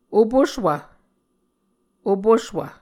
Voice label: "opuswv", Muscogee

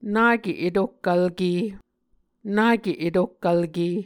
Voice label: "nake etohkvlke", Muscogee

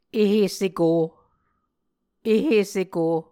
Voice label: "ehe seko", Muscogee

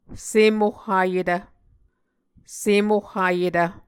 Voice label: "sem ohhayetv", Muscogee; Mvskoke Words with Repeated Consonants; Martin, Jack B., and Margaret McKane Mauldin. 2023. A Dictionary of Creek/Muskogee

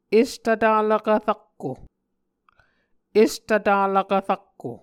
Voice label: "estvtalvkv rakko", Muscogee; est-vtvlakv-rakko; Mvskoke Words with Voicing Consonants; Onvkv Ohhvyayices; A Dictionary of Creek/Muskogee; SIL International

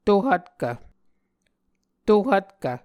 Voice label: "to-hvtkv", muscogee

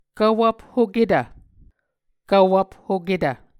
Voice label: "kvwvphoketv", Muscogee; Mvskoke Words with Voicing Consonants; A Dictionary of Creek/Muskogee; SIL International

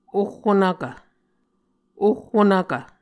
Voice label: "oh onvkv", Muscogee

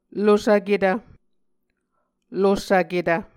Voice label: "losvketv", Muscogee

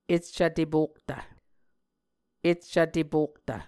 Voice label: "eccv tepoktv", muscogee; Mvskoke Words with Repeated Consonants; A Dictionary of Creek/Muskogee; SIL International

